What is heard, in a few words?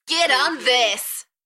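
British female Get on this Enthusiastic; beanstalk audio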